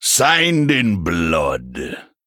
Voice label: Male Troll Attack

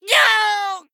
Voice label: Female Fel Orc Death

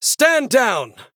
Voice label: Male Human Attack

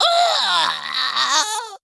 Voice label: Male Imp Death

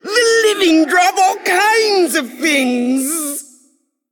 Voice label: Female Forsaken

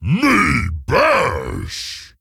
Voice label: Ogre Emote

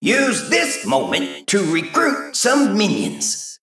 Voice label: Male Mech Hire

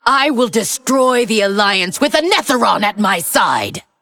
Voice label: Female Undead

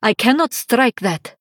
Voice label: Female Draenei ERROR TARGET

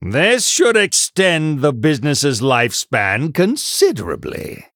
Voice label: Male Human

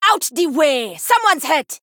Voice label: Female Troll Play

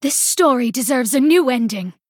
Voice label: Female High Elf Play

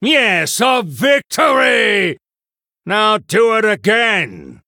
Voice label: Male Human Combat Win

